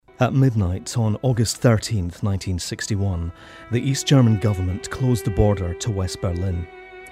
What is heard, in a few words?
documentary